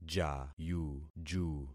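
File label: "jayuju", concatenated syllables; Affixing experiment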